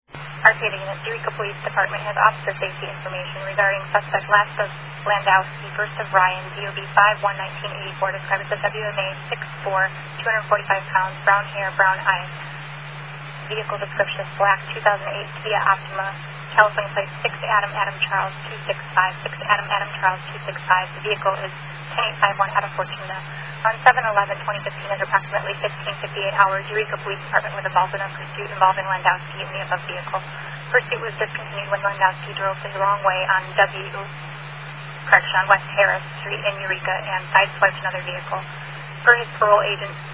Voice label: Scanner